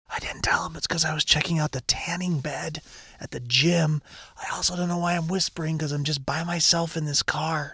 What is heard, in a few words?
whispering; i didnt tell that cuz i was checking out